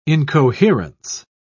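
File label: "incoherence", British English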